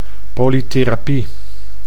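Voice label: "polytherapie", Dutch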